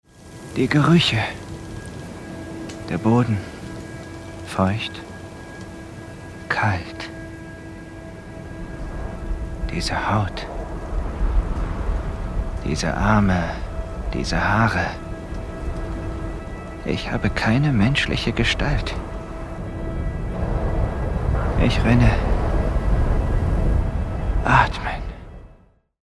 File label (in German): animalisch instinktiv